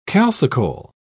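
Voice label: "calcicole", English, British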